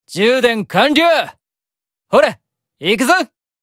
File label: Notification Voice; Mammon